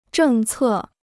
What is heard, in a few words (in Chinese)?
"政策", Chinese